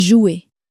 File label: "jouer", French